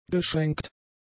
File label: "geschränkt", German; adjectives